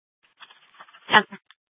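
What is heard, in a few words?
police dispatch, Scottsdale PD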